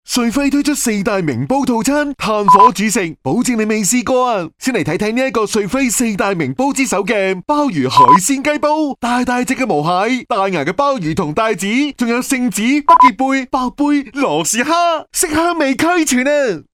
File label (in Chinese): 男【促销】; 粤男; 穂晖